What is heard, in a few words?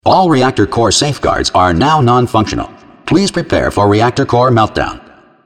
opening safeguards, announcer; Portal 2